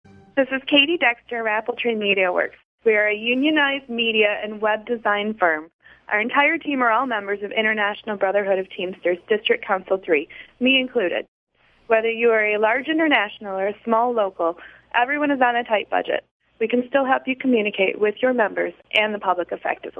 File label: commercial; wfrn